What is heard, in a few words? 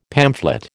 "pamphlet", American